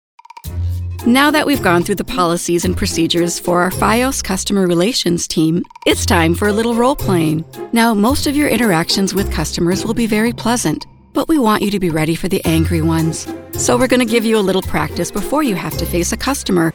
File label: NARRATION